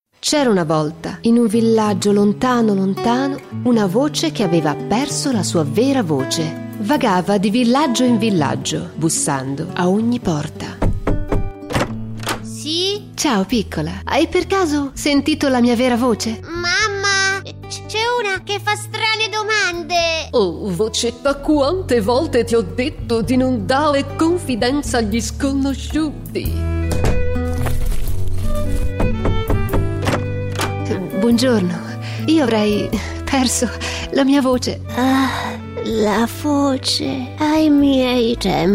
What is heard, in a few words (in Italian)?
audiolibri bambini